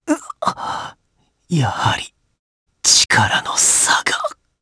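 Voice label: Vox Dead, Japanese; kingsraid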